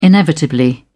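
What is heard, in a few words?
"inevitably", British English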